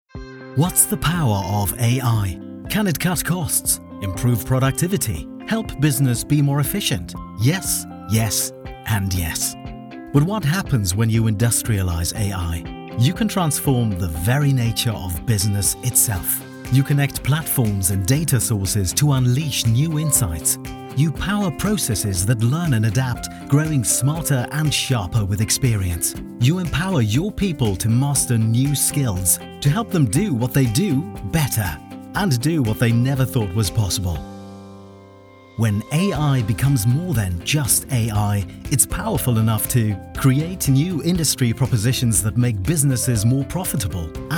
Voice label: English Corporate

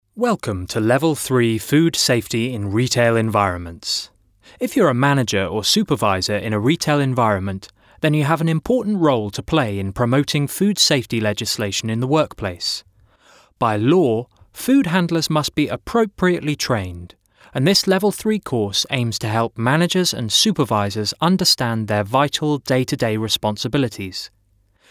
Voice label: Elearning Reel

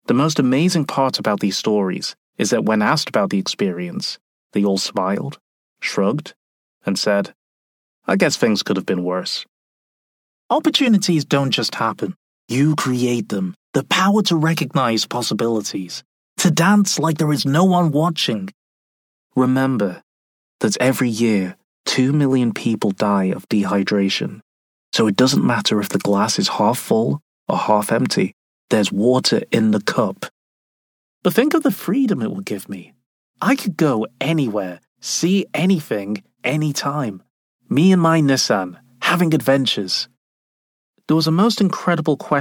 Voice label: NARRATION

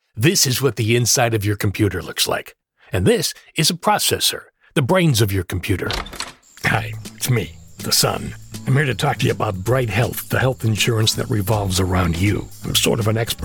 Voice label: conversational

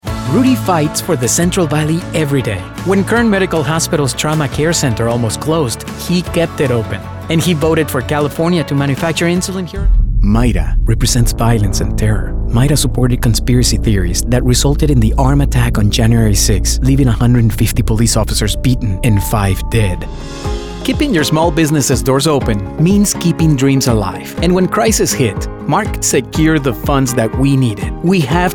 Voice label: Political English

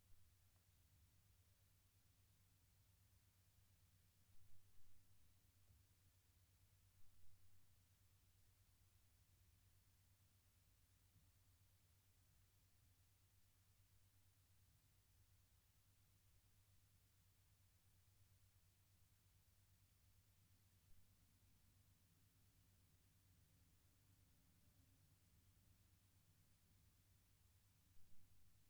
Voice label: Raw Studio

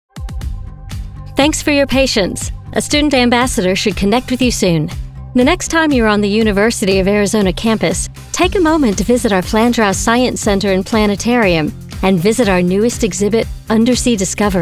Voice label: telephony

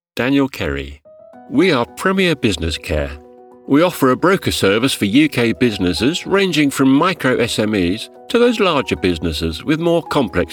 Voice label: corporate VOICEREEL

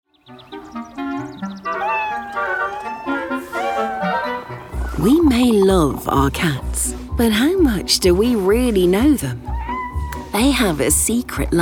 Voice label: Documentary; Secret Life of Cats

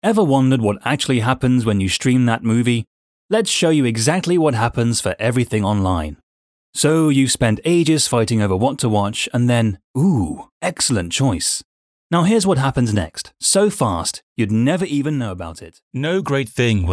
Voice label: Corporate

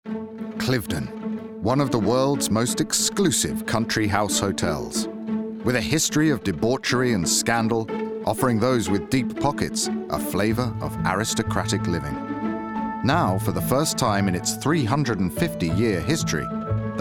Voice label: Documentary Read

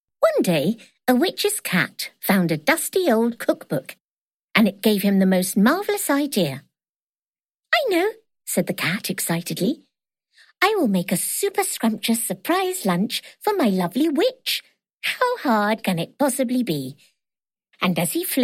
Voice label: Audio book

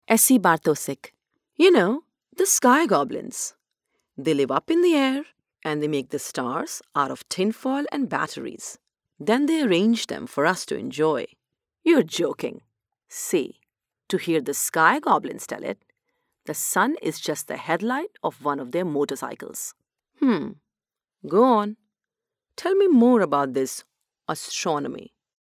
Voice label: Home Studio Processed

